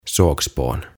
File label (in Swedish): "Sågspån" "Sahanpuru"